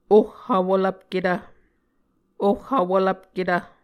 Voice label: "oh vwvlvpketv", Muscogee

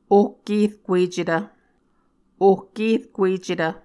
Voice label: "ohkerkuecetv", Muscogee

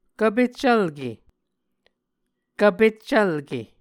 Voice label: "kvpeccvlke", Muscogee; Mvskoke Words with Repeated Consonants; A Dictionary of Creek/Muskogee; SIL International

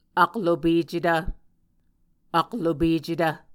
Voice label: "aklopecetv", Muscogee

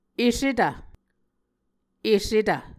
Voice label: "esetv", muscogee

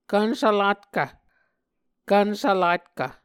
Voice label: "kvnsvlatkv", Muscogee

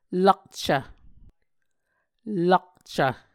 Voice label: "lakcv", Muscogee

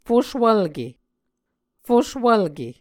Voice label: "fuswvlke", muscogee

